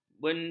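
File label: "bendang", Malay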